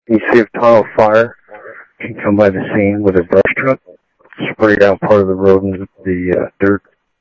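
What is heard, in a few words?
Sheriff Lake Patrol, scanner audio; MCSO Lakes 1; ThompsonPeakScot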